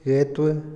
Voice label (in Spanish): "cerca", Otomí, hombre; INALI